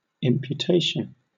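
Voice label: "imputation", British English; pronunciations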